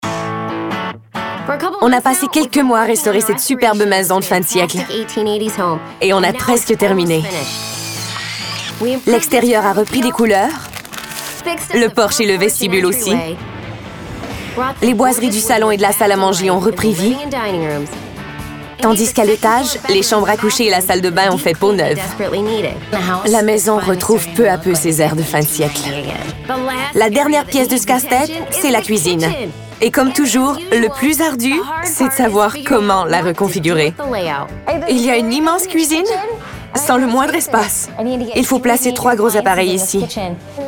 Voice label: Voice Over